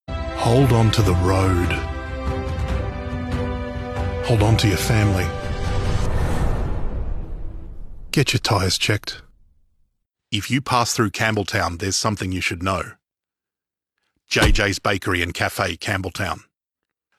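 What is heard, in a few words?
Australian English male commercial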